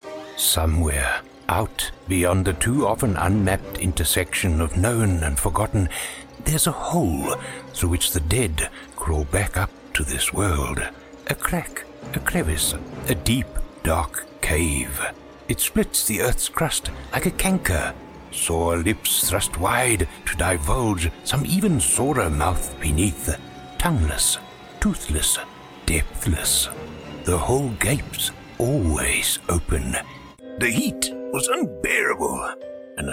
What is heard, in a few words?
Horror Mixed Style Narration